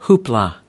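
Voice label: "hoopla", American English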